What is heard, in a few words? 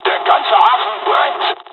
japanese; first wave; War Thunder